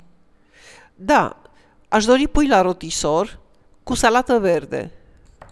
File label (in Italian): ristorante, rumeno; frasi utili